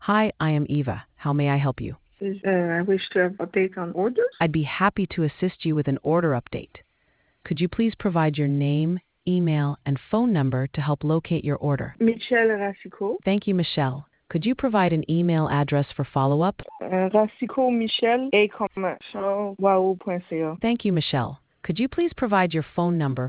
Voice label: conversation